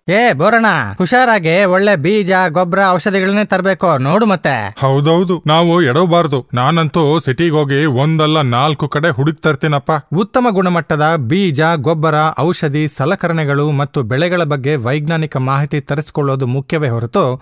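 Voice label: Male Kannada voice over; Agriplex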